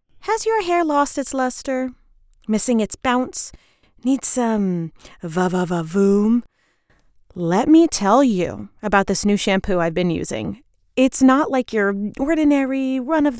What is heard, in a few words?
Commercial; Va Va Voom Shampoo